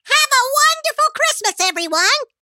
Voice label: Cartoon Elf Voice Have A Wonderful Christmas Everyone; Santas Elf; Apple Hill Studios; Cartoon Holidays